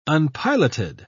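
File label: "unpiloted", British English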